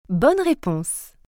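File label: "bonne reponse", French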